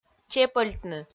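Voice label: "чепӧльтны", Komi, female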